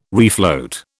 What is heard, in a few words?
"refloat", British